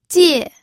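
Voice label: "jie4", Mandarin Chinese fourth tone; pinyin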